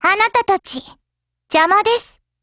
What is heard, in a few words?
SKILL JP; NEGEV